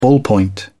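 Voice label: "ballpoint pen", British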